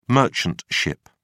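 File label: "merchant ship", British English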